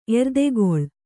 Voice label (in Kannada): "ಎರ್ದೆಗೊಳ್", kannada